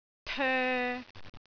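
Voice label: "toe3", Cantonese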